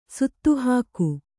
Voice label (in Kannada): "ಸುತ್ತುಹಾಕು", Kannada